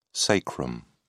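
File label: "sacrum", English, British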